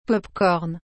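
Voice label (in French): "popcorn", French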